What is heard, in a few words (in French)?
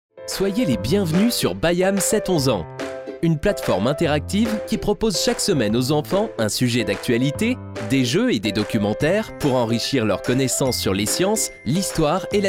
infomercial; bayam 7-11 ans sfr google play; institutionnel corporate